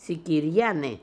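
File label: "Sikirians", Russian, pronounciations; Fourth Way; Beelzebub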